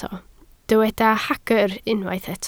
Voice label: "hagr", Welsh